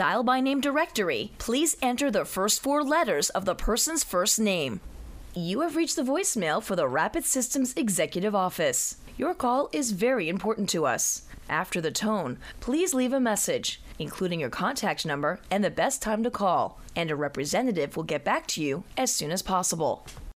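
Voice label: Phone Hold IVR Female, conversational, friendly, upbeat; Narration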